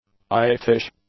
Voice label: "oafish", english; adjectives

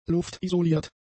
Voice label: "luftisoliert", German; adjectives

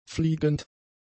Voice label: "fliegend", German; adjectives

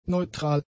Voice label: "neutral", German; adjectives

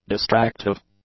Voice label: "distractive", English; adjectives

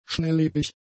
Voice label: "schnelllebig", German; adjectives

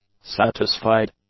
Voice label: "satisfied", English; adjectives

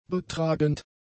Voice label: "betragend", German; adjectives

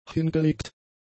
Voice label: "hingelegt", German; adjectives

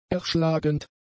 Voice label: "erschlagend", German; adjectives

